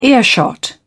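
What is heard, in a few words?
"earshot", British English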